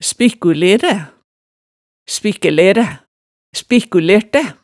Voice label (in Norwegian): "spikkuleræ", hemsedalsmaalet